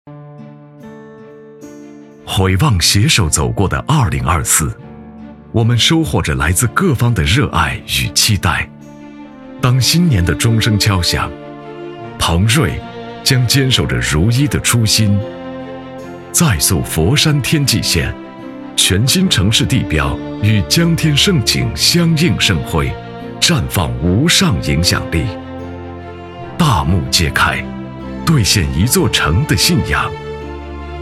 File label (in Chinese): 男 专题; 三龙湾